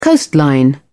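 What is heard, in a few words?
"coastline", British English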